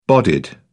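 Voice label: "bodied", British English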